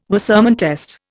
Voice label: "Wassermann test", Indian